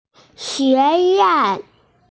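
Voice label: "xueyuan", Mandarin Chinese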